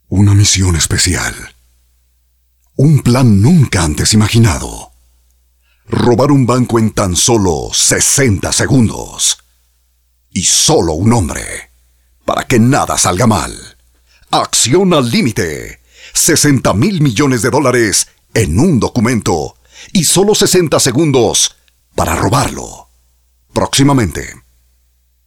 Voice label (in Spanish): locucion tono accion sin musica